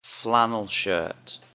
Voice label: "flannel shirt", English; Outdoor Clothes